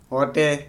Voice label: "ground", Korku